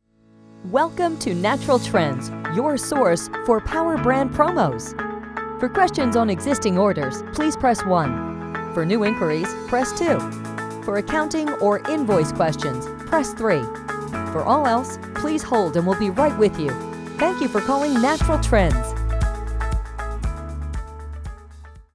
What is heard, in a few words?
IVR; Natural Trends